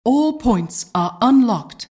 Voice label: announcer custom team alerts; dota2